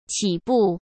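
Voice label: "起步", Mandarin Chinese; hsk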